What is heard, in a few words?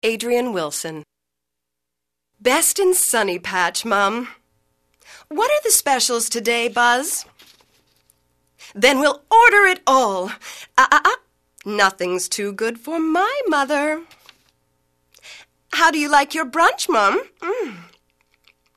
animation female